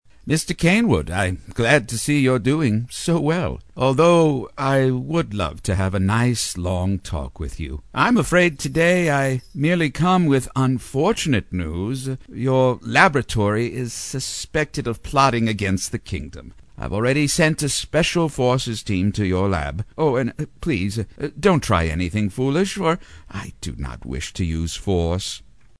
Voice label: UNDERLING Insincere Cowardly; animation

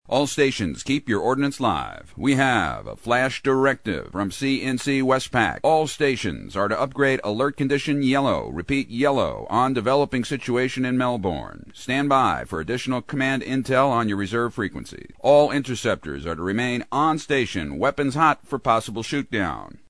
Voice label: Command Control, Emphatic & Clear Intel; animation

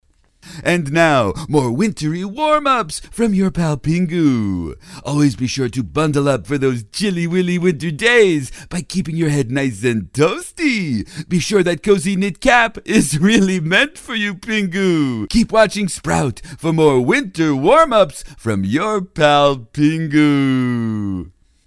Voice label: ANNOUNCER Old Style, cartoon; animation

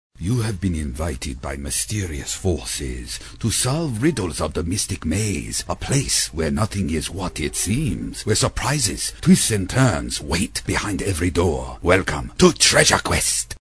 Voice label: Ancient Narrator, Asian & Lighter; animation

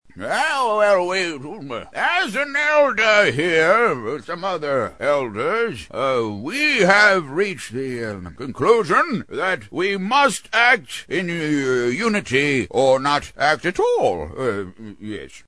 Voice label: Old Fool, Elder, Confused; animation

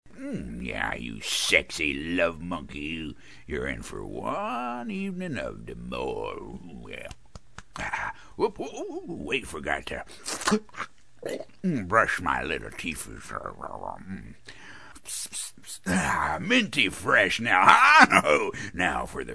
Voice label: Drunk Bum, Old & Foul; animation

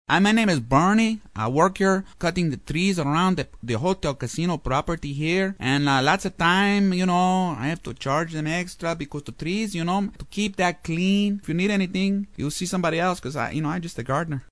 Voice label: Mexican Worker, cartoon; animation

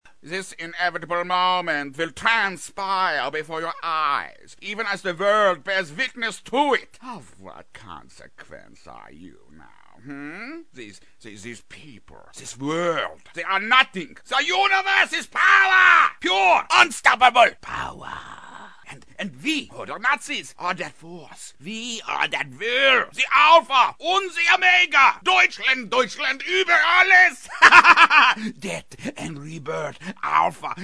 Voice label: DOCTOR Insane German, cartoon; animation

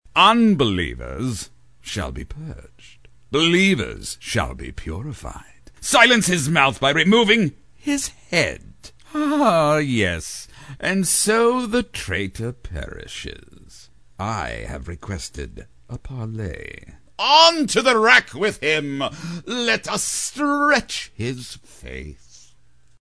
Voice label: Inquisitor, Sadistic Zealot; animation

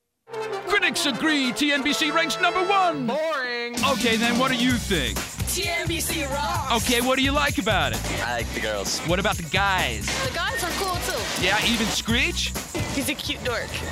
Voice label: Comedy, Kids, & Sports Promos; promos trailers

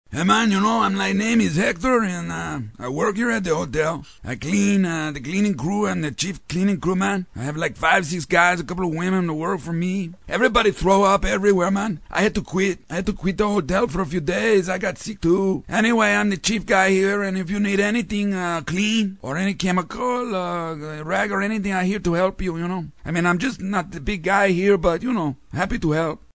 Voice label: Mexican Worker, Older, cartoon; animation

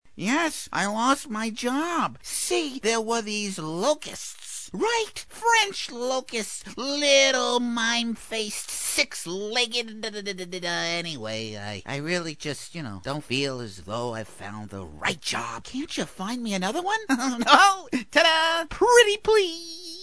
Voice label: SIDEKICK - Endearing; animation

